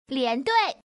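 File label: double straight, female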